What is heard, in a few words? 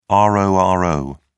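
"roro", British English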